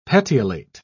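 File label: "petiolate", British English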